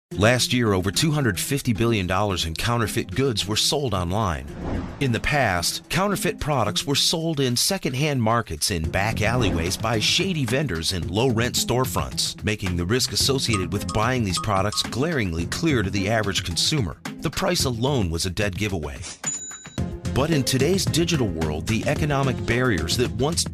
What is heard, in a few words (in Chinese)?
外籍英男 产品使用说明